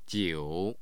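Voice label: "jiu", Mandarin Chinese, tone 3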